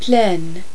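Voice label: "plein", French; Virtual Casino: Secret Fantasies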